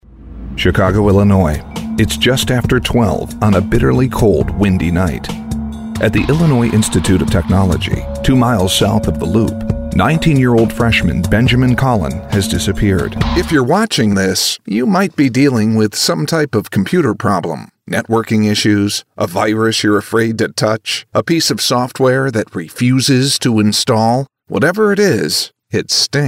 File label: Narration e-learning; DEMO; voice over